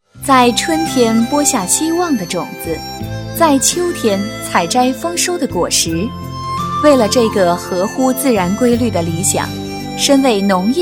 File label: locutora china female chinese voiceover